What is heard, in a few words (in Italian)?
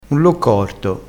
"corto", crespinese